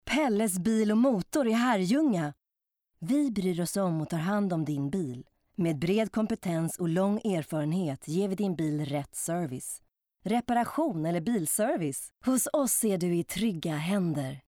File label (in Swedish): com swe